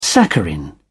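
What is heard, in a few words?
"saccharin", English, British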